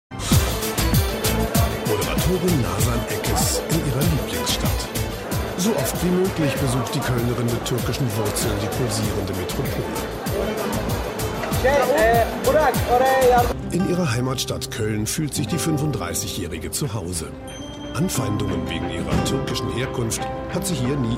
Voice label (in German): TV Doku Feature; ARD